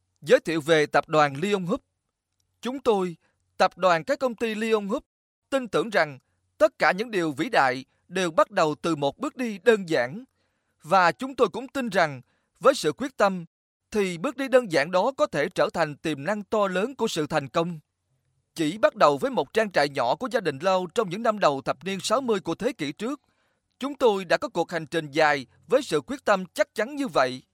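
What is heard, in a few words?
Vietnamese General; GoLocalise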